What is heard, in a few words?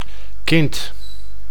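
"kind", Dutch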